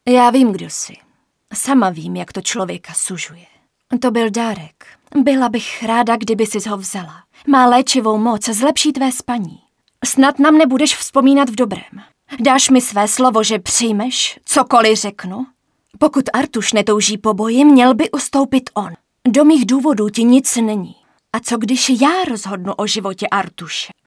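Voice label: female Czech drama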